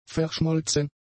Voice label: "verschmolzen", German; adjectives